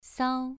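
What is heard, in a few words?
"sao", Mandarin Chinese syllable, second tone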